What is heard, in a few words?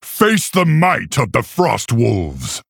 Male Orc Start